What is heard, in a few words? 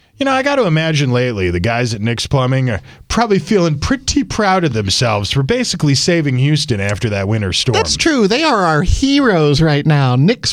RADIO AD; nicks plumbing radio ads